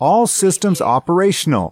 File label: US male all systems operational; lynne publishing